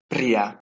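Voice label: "priHeh2", Proto-Indo-European; eastern origins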